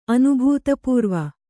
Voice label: "ಅನುಭೂತಪೂರ್ವ", Kannada

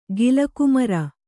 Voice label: "ಗಿಲಕುಮರ", Kannada

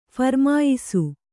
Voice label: "ಫರಮಾಯಿಸು", Kannada

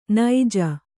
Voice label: "ನಿಜ", Kannada

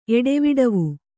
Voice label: "ಎಡೆವಿಡವು", kannada

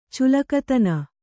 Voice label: "ಚುಲಕತನ", kannada

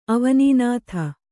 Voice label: "ಅವನೀನಾಥ", Kannada

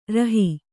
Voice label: "ರುಹೆ", kannada

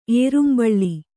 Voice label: "ಏರುಂಬಳ್ಳಿ", Kannada

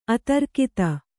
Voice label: "ಅತಿರಾಗತೆ", Kannada

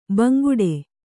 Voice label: "ಬಾಂಗಡಿ", Kannada

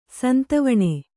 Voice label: "ಸಂತವಣ", Kannada

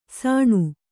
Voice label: "ಸಣು", Kannada

